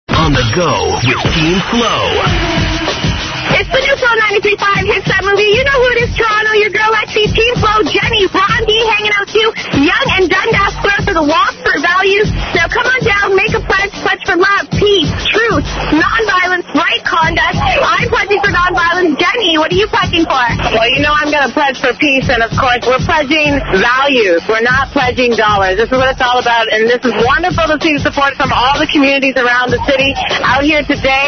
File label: radio